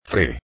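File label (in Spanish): "fre", Spanish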